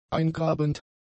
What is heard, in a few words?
"eingrabend", German; adjectives